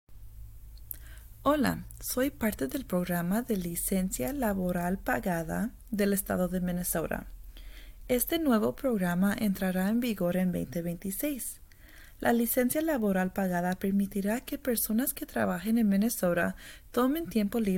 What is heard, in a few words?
spanish boosted outreach